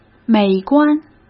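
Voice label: "美观", Mandarin Chinese; hsk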